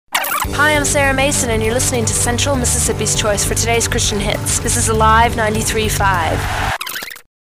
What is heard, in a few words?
Artist Liner